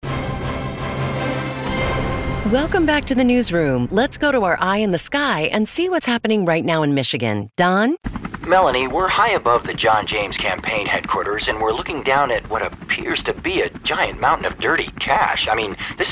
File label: Radio; MI Senate; Chopper